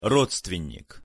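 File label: "rodstvennik", Russian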